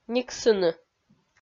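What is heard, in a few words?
"никсыны", Komi-Zyrian, female; kpv audio dict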